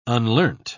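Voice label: "unlearnt", English, British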